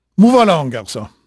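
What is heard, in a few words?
French male, voice; Pirates Of The Caribbean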